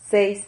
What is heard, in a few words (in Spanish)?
"xeis", Silabas